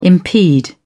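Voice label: "impede", British English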